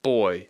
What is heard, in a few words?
"boy", English